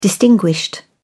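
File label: "distinguished", British English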